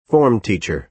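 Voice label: "form teacher", American English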